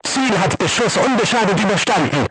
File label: voice message armor not pierce, crew tanks german